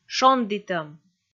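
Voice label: "шондітӧм", Komi, female; kpv audio dict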